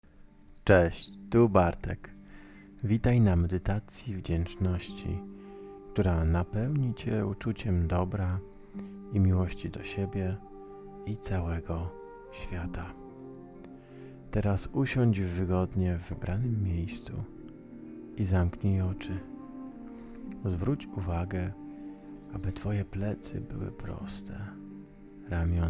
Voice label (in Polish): Medytacja wdziecznosci